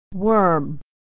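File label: "worm", American English pronunciation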